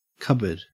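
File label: "cupboard", Australian English; pronunciations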